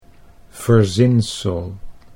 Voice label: "verzinsel", Dutch

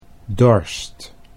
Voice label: "dorst", Dutch

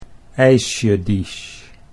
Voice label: "eisjediesj", Dutch

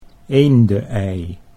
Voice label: "eende ei", Dutch

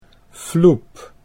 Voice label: "floep", Dutch

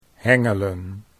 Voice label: "hengelen", Dutch

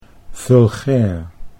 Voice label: "vulgair", Dutch

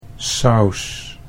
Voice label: "saus", Dutch

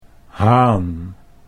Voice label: "haan", Dutch

